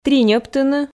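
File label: "триньӧбтыны", Komi, female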